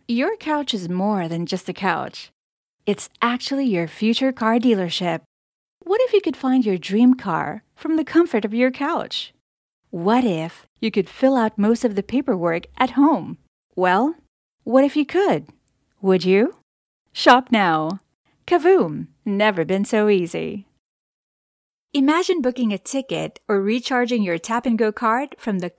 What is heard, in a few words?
English